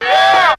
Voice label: "yeah", group of men